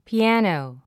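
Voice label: "piano", English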